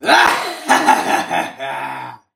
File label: evil villian